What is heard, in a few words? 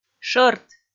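"шӧрт", Komi, female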